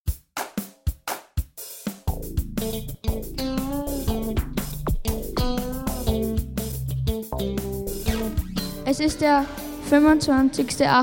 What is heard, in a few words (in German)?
Tagesrueckblick Radio